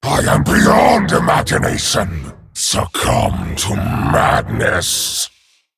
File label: Male Dragon